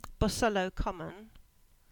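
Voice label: "Bosullow Common" English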